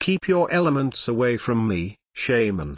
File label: Male Dwarf; Tavish; Scabbs